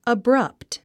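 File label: "abrupt", English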